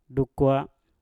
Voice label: "dukwa", Mpur; ambil